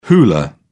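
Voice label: "hula", English, uk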